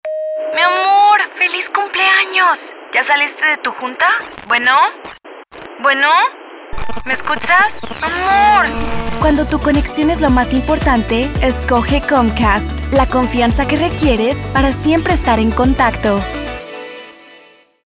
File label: ENG LATAM Telefonia Humorous Casual